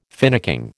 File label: "finicking", American